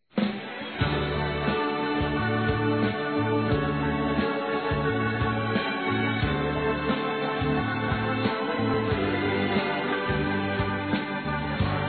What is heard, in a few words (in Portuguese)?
Aniversário Neta Masculino; Aniversários; Aniv Familiar